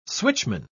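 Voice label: "switchman", British English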